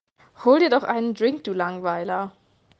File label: "Drink", german